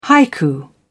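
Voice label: "haiku", British English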